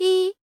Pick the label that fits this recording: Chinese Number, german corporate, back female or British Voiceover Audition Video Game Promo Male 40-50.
Chinese Number